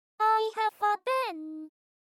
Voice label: english